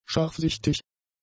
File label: "scharfsichtig", german; adjectives